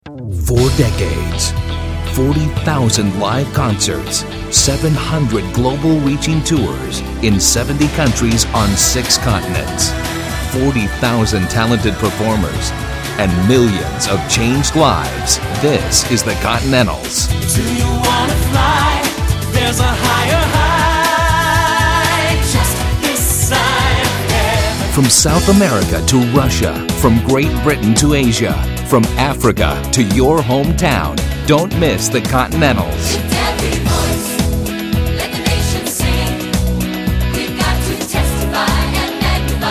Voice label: Radio Spot; Continentals